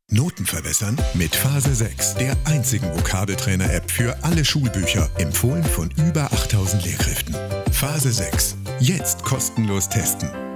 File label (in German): Vokabeltrainer; Phase6